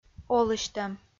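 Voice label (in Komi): "олысьтӧм", Komi, female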